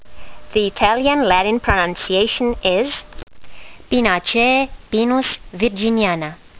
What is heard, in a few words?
latin; Pinus virginiana